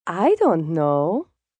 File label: I Dont Know, female; Response; Talking